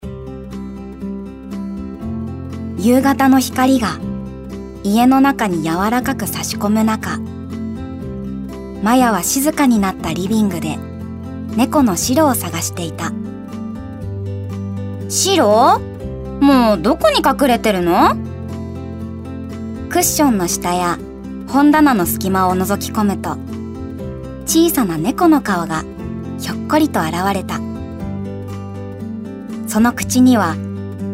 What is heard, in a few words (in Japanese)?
モノローグ やさしい